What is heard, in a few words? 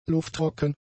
"lufttrocken", German; adjectives